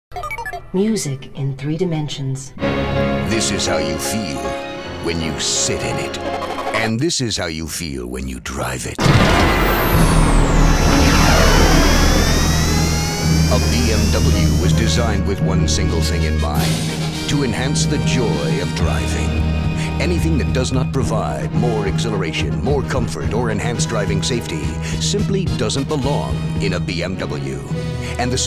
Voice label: Radio; BMW